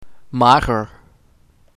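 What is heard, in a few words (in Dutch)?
"Mager", Dutch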